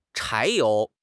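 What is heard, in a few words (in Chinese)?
"柴油", Chinese; HSK6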